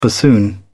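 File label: "bassoon", British English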